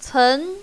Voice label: "cen2", mandarin, female